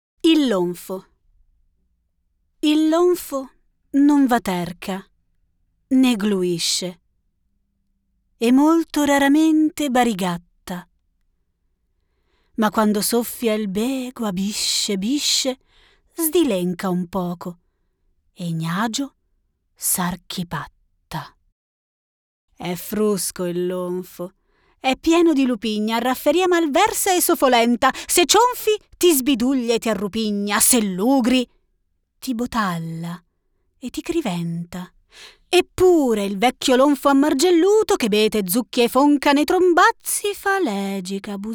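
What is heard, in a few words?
Italian Narration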